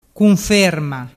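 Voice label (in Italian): "cunferma", Vernazzese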